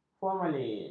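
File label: "formalin", Malay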